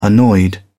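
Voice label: "annoyed", British English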